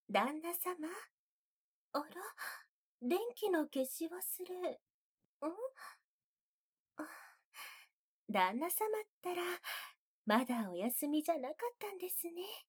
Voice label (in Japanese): 添い寝 BGMあり 左耳用, ASMR; ナース愛華